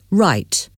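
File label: "right", English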